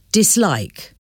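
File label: "dislike", English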